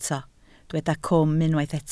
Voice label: "cwm", Welsh

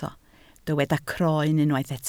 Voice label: "croen", Welsh

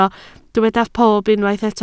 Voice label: "pob", Welsh

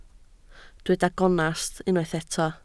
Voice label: "gonest", Welsh

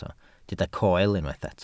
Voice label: "coel", welsh

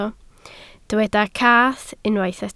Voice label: "cath", Welsh

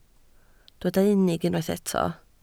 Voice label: "unig", Welsh

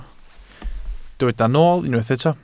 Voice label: "nool", Welsh

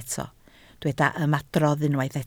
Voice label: "ymadrodd", Welsh

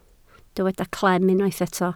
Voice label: "clem", Welsh

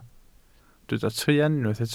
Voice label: "truan", Welsh

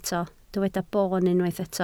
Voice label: "boon", Welsh

